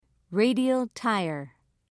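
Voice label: "radial tire", British English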